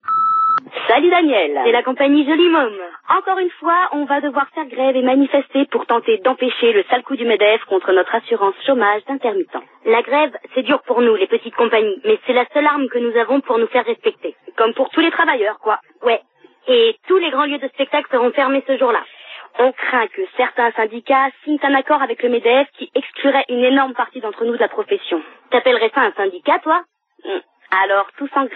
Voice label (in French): Intermittents repondeur